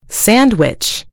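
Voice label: "sandwich", American English; gcompris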